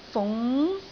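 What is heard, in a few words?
"fung2", Cantonese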